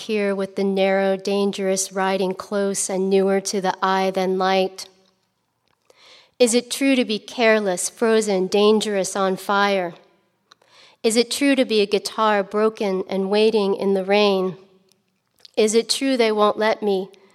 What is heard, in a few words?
poem; earth breathing fireworks day